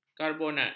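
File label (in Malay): "karbonat", Malay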